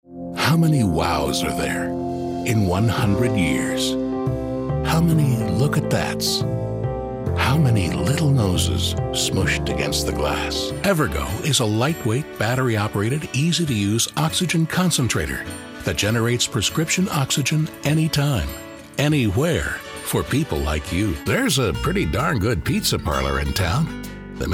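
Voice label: male, Commercial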